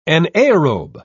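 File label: "anaerobe", British English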